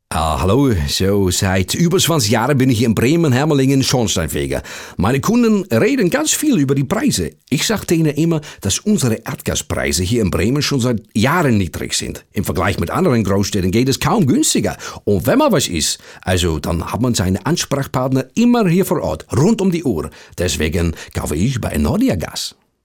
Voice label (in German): Akzent Hollaendisch; Fremdtake